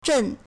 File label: "zhen4", Chinese